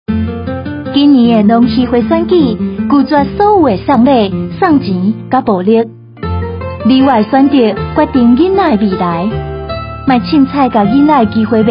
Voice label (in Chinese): 反賄選宣導 30秒廣播帶 台語版; 110年度全國基層農漁會選舉法務部反賄選宣導